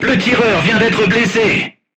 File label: voice message gunlayer wounded, crew tanks french